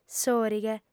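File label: "sòrighe", lingua sarda, female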